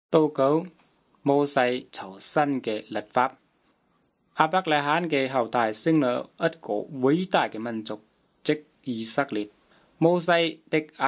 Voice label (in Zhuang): good news zhuang nanning fushu